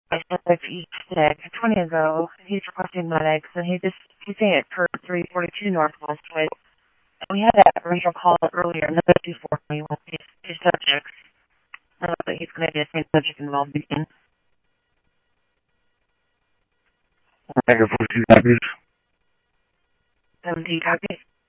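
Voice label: Simulcast police department, scanner audio trunk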